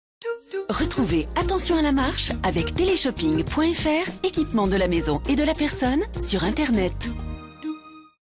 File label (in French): TELESHOPPING, FR